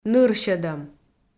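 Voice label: "нырсьӧдӧм", Komi, female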